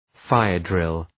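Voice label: "fire-drill", English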